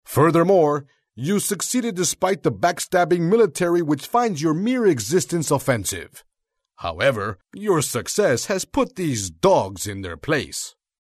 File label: WINBB, Voice Narrator; Tropico